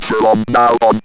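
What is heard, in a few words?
ROBVOX, robot voice; E-Lab; X Static Goldmine 1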